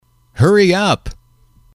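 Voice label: hurry up, English, male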